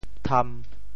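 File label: "tham1", Teochew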